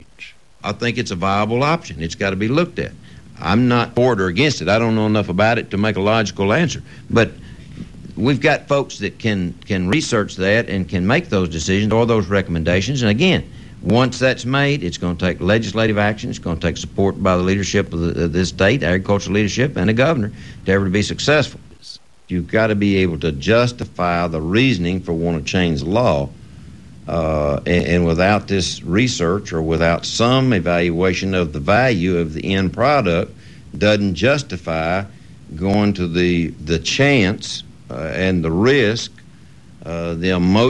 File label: Kentucky, dialect